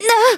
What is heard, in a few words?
hit, de; Cookie Run